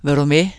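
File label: danish vil du med; [veɾoˈmɛ]; dansk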